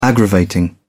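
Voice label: "aggravating", British English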